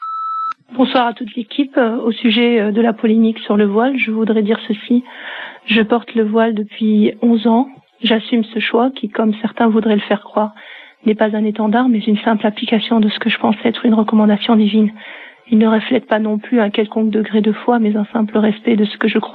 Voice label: répondeur

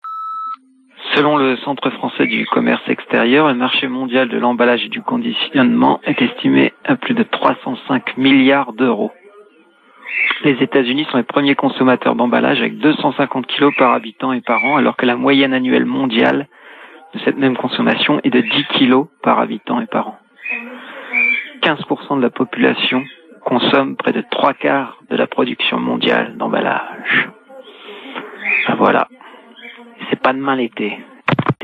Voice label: Emballages repondeur

